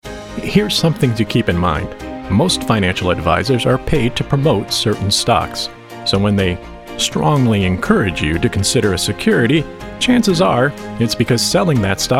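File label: Narration, male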